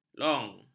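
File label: "long", Malay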